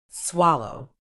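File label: "swallow", British English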